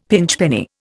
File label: "pinchpenny", Indian; Dictionary